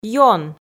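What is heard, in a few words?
"ён", Komi, female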